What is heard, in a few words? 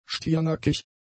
"stiernackig", German; adjectives